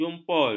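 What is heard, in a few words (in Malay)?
"jumpul", Malay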